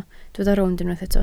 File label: "rownd", Welsh